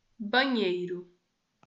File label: "banheiro", portuguese